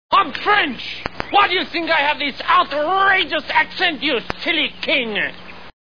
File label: french